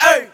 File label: "hey", men chant